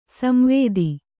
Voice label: "ಸಂವಾದ", Kannada

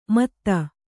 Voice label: "ಮೊತ್ತು", Kannada

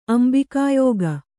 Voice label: "ಅಂಬಿಕಾಯೋಗ", Kannada

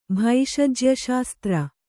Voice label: "ಭೈಷಜ್ಯಶಾಸ್ತ್ರ", Kannada

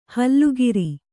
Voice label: "ಹುಲ್ಲೆಕರು", Kannada

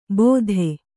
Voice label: "ಬೋಧೆ", Kannada; bōdhe; noun; knowledge; reaslisation.

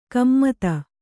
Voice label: "ಗುಮ್ಮತಿ", kannada

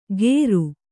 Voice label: "ಕೇರು", Kannada

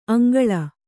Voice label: "ಅಂಗಳು", Kannada

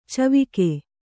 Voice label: "ಚಿವಿಕೆ", Kannada

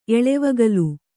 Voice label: "ಎಳೆವಗಲು", kannada

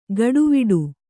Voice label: "ಗಡುವಿಡು", kannada

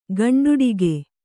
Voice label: "ಗಂಡುಡಿಗೆ", Kannada

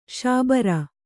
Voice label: "ಶಾಬರ", Kannada

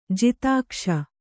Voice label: "ಜಾತಿಕೋಶ", Kannada

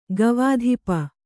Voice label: "ಗವಾಧಿಪ", Kannada

